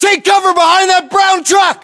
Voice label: order cover truck brown, battlechatter us